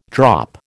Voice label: "drop", American

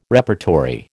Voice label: "repertory", American

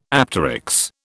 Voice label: "apteryx", British

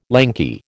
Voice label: "lanky", American

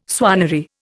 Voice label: "swannery", Indian

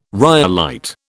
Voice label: "rhyolite", British

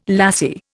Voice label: "lassie", Indian

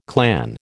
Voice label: "clan", American

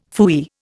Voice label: "phooey", Indian; Dictionary

